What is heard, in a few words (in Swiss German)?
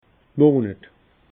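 "monet", Alsatian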